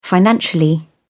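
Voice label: "financially", British English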